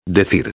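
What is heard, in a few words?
"decir", Spanish; Dir; Futuro Imperfecto de Indicativo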